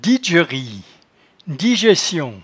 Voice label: "didjerie", patois jura